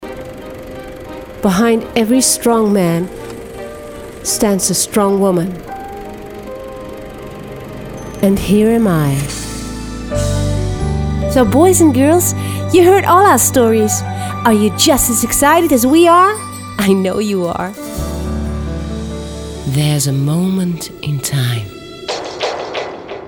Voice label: VOICE OVER ENGLISH; stemactrice